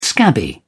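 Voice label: "scabby", English, British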